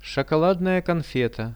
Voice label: shokoladnaja konfeta, Russian; products

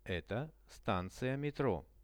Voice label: "eto stancia metro", Russian; Это станция метро.; transport; RUSSIAN VISUAL DICTIONARY

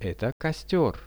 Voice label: "eto kostior", Russian; Это костёр; nature; priroda; RUSSIAN VISUAL DICTIONARY

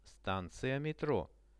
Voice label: stancia metro, Russian; станция метро; transport; RUSSIAN VISUAL DICTIONARY

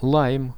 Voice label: "laim", Russian; лайм

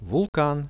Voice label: "vulkan", Russian; вулкан; nature; priroda; RUSSIAN VISUAL DICTIONARY

